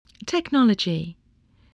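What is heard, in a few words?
"technology", English word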